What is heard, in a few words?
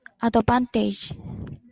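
"advantage", Japanese, female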